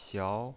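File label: "xiao", pinyin voice, second tone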